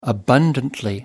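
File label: "abundantly", English, British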